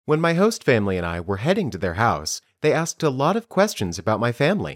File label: Dictation